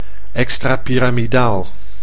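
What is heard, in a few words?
"extrapiramidaal", Dutch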